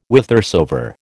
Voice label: "whithersoever", American